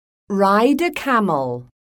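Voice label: "RIDE A CAMEL", English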